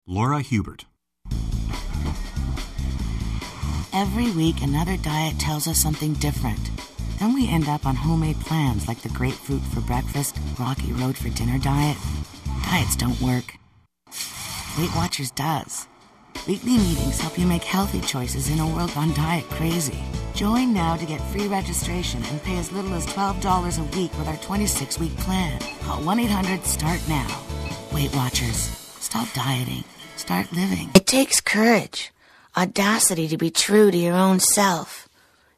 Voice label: commercial female